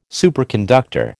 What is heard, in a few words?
"superconductor", American